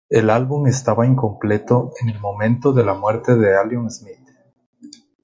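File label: "incompleto", Spanish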